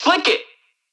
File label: VO "Flick It"